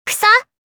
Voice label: "Kusa", Kawaii Voice, Reactions

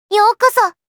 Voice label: "Yokoso", Kawaii Voice Greeting

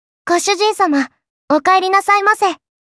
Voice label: "Okaerinasai", Kawaii Voice; Greeting

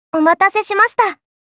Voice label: "Omatase", Kawaii Voice Greeting

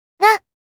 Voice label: "Eh", Kawaii Voice, Reactions

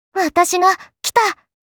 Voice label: Positive "Watashiga", Kawaii Voice

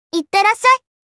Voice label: "Itte", Kawaii Voice, Commands

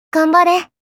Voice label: Positive "Ganbare", Kawaii Voice

